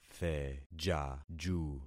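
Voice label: "thejaju", concatenated syllables; Affixing experiment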